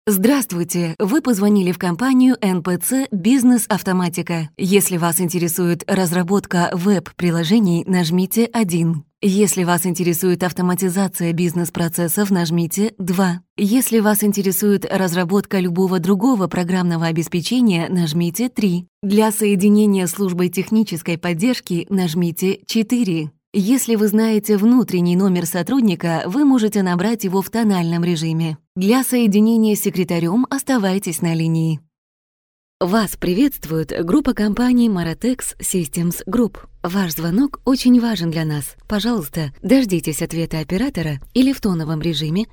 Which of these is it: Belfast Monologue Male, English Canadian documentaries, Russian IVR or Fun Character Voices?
Russian IVR